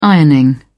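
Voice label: "ironing", British English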